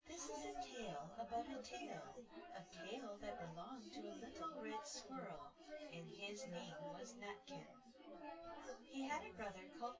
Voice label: female synthconv